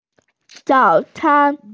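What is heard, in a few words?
"zao3can1", Mandarin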